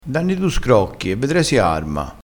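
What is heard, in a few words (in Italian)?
"danni", dialettale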